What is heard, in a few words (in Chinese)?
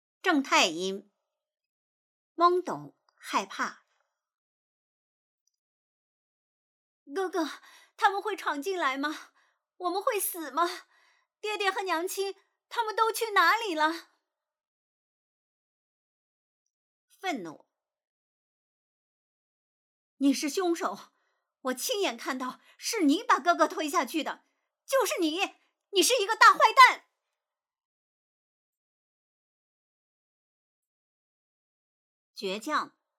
正太音